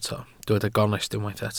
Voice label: "gonest", Welsh